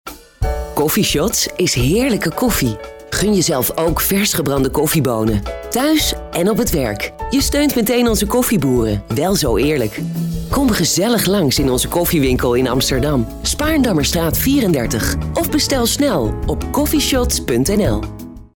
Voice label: COMMERCIAL COFFEESHOTS; KONING